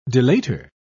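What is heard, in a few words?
"delator", British English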